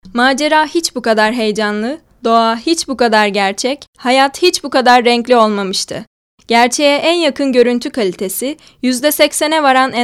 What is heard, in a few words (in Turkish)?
REKLAM